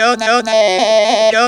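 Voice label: effects talk, Funky Vocals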